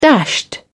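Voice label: "dashed", English, British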